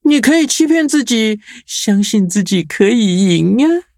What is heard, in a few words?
loading zh-hant; cookie run